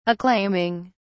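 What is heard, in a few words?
"acclaiming", British English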